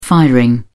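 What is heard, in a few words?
"firing", British English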